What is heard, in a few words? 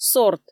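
"сорт", Komi, female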